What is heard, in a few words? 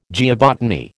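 "geobotany", American English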